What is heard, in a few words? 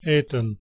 "etan", Anglo Saxon